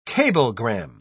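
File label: "cablegram", British English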